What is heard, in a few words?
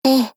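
Japanese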